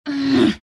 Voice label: temp pain; templar assassin

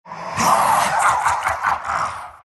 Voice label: laugh; dota; nevermore

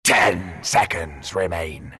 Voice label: announcer ten secs remain, pirate; dlc workshop; capn

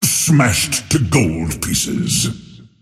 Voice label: lasthit pain; dota; earthshaker; arcana

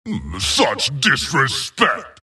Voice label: wraith death; dota; skeleton king

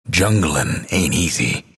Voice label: announcer text jungle; dlc bastion

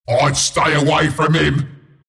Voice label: announcer kill follow up; killing spree; dota; announcer dlc bristleback

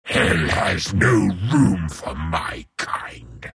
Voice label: troll death; troll warlord

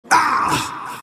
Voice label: monkey crown pain; dota; monkey king

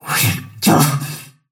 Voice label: anger; snapfire

